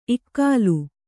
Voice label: "ಇಗ್ಗಾಲಿ", Kannada

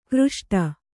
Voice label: "ಘೃಷ್ಟಿ", Kannada

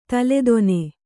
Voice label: "ತಲೆದೊನೆ", kannada

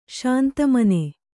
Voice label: "ಶಾಂತಮನ", Kannada

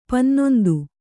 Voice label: "ಪನ್ನೊಂದು", Kannada; pannondu; noun; the cardinal number eleven; 11

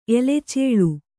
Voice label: "ಎಲೆಚೇಳು", Kannada; elecēḷu; a large black scorpion.

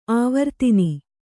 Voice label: "ಆವರ್ತನಿ", Kannada

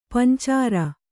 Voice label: "ಪಂಚಾರ", Kannada

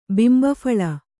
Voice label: "ಬಿಂಬಫಲ", Kannada

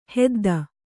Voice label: "ಹೊದ್ದು", Kannada

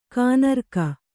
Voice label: "ಘನರಾಗ", Kannada

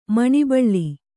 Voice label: "ಮನೋಬಲ", Kannada; noun; power of determination.

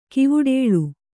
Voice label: "ಕೈವಟ್ಟಲ್", kannada

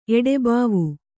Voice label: "ಎಡೆಬಾವು", Kannada; eḍebāvu; noun

